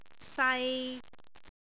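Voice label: "sai3", Cantonese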